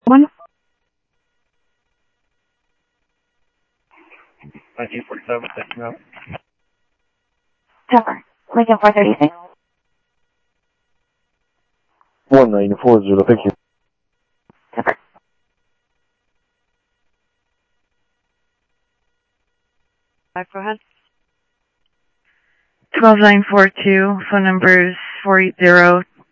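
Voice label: Sheriff East County, scanner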